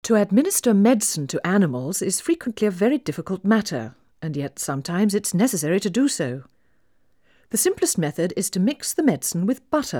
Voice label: Female speech English Mono